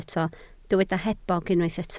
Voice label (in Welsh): "hebog", Welsh